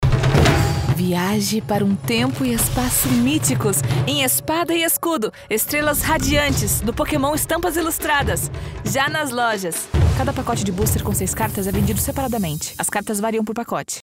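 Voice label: commercial ad young epic fantasy female brazilian portuguese voiceover; pokemon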